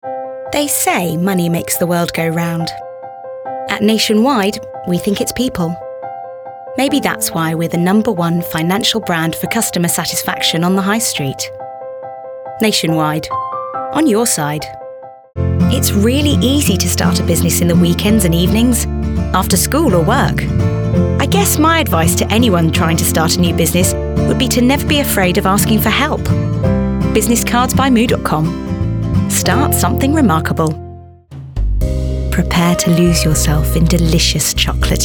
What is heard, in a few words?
English UK